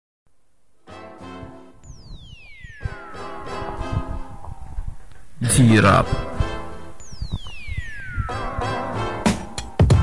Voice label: rap

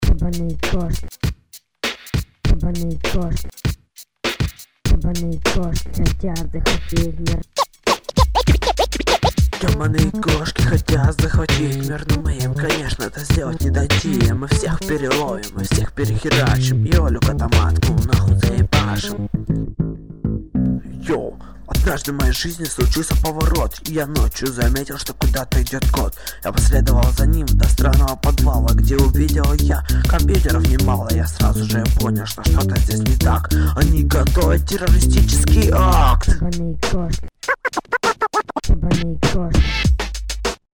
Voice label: rap

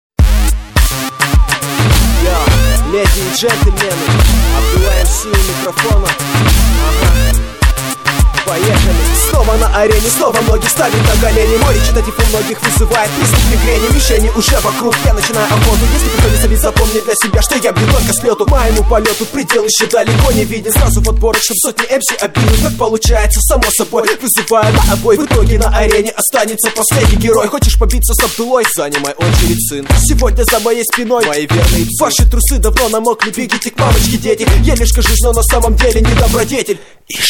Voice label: rap rus

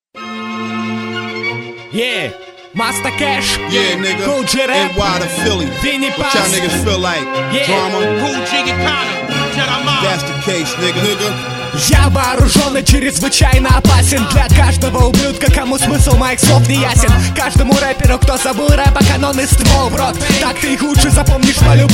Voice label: animal rap

